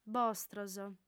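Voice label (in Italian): "bostros", lingua sarda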